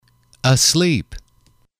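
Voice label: "asleep", male, English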